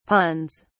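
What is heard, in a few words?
"puns", British English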